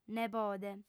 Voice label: "nebode", audiofem

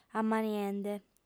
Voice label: "amaniende", audiofem

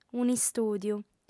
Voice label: "un'istùdiu", audiofem

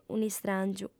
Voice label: "un'istràngiu", audiofem

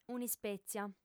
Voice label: "un'ispètzia", audiofem

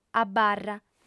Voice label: "abarra", audiofem

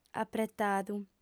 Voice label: "apretadu", audiofem

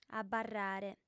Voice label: "abarrare", audiofem

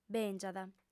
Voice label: "bèngiat", audiofem

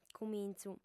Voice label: "cumintz u", audiofem

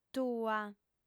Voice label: "tua", audiofem

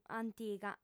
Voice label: "antiga", audiofem

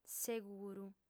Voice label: "seguru", audiofem

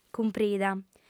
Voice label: "cumprida", audiofem

